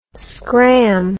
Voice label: "scramb", English